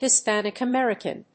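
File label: "Hispanic American", English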